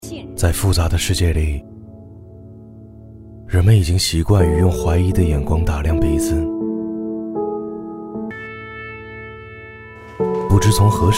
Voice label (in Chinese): 旁白, 走心; 医生的担当